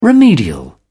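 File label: "remedial", British English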